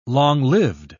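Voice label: "long-lived", British English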